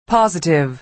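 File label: "positive", American English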